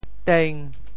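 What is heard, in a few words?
"teng5", Teochew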